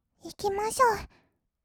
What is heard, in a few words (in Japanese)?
「いきましょー」, kawaii voice; 1200 kawaii voice!!; 色々なワンショット